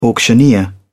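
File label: "auctioneer", British English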